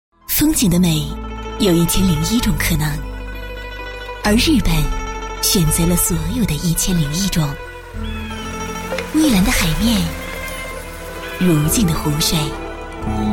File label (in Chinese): 女 广告; 途牛网